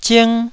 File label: "zing1", Taiwanese